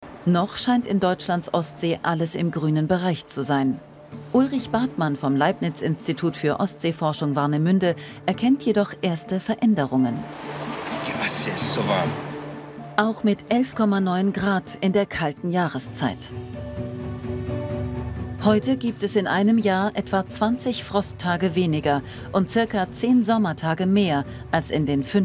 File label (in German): TV Doku; MDR; Krank durch Hitze